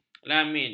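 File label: "lamin", Malay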